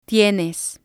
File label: "tienes", Spanish